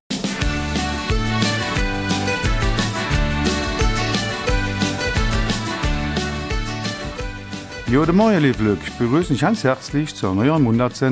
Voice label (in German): Eifeler Mundart; Neue Studienfächer an der BIB